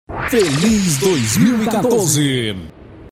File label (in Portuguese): vinheta feliz 2014 voz